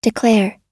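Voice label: "declare", US English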